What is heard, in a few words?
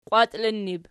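"qwatiinnur", Archi, plural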